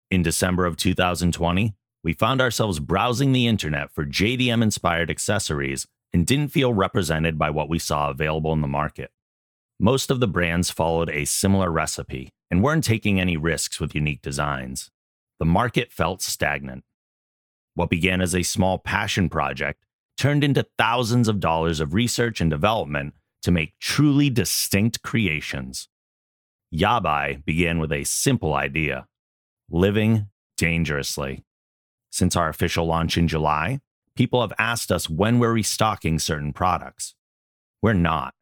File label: voiceover; Yabai Ninja